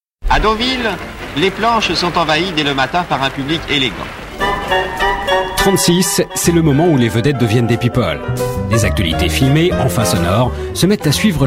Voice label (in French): Voix off; 36 en chansons